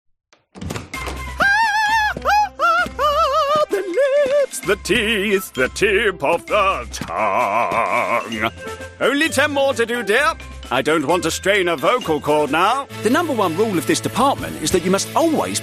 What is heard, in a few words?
english british animation; UK voice actor